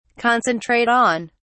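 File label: "concentrate on", British English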